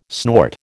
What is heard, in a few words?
"snort", American